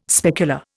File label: "specular", Indian